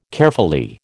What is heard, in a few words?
"Caerphilly", American